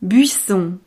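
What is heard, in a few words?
"buisson", French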